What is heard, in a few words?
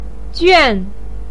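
"juan" fourth tone, chinese, voice pinyin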